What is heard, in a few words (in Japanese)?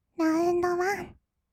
ラウンドワン, kawaii voice; 音ゲー